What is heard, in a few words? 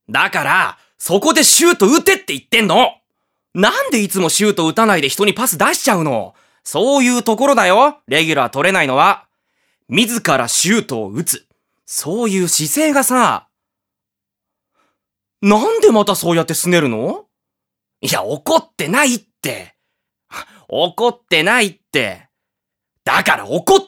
male voice